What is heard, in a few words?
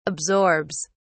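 "absorbs", British English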